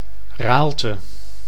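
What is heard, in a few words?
"Raalte", Dutch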